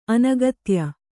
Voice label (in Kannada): "ಅನಗತ್ಯ", Kannada